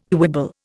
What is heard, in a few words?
"quibble", Indian